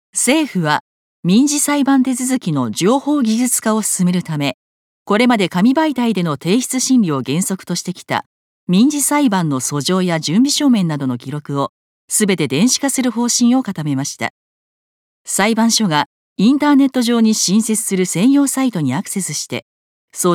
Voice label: ニュース

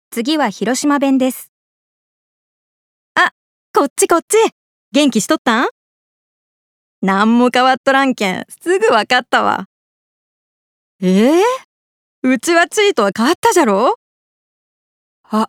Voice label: 方言

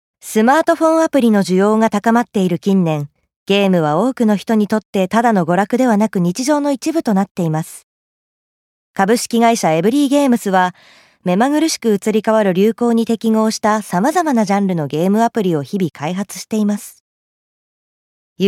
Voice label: 会社紹介

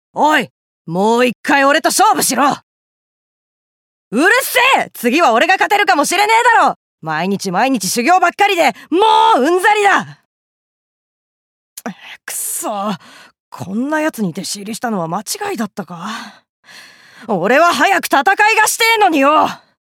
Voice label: 少年

